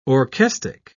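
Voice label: "orchestic", English, British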